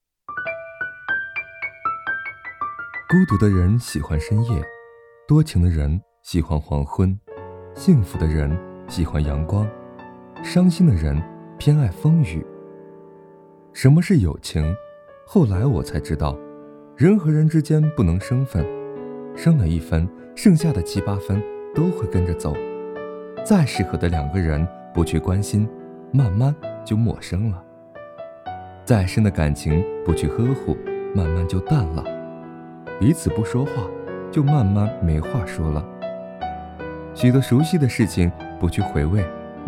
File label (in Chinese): 温情电台风解说